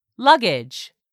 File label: "luggage", English; 3000 words